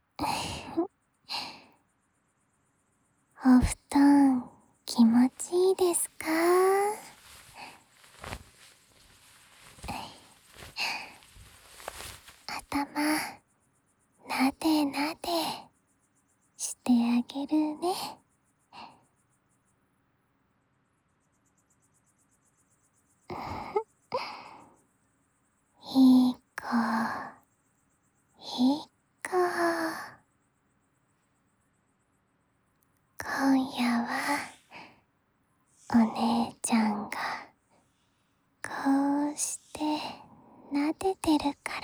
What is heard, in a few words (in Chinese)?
被姐姐摸摸头哄至入睡